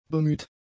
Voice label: "bemüht", German; adjectives